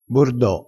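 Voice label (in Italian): "bordo", vocabolario dialettale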